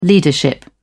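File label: "leadership", English, British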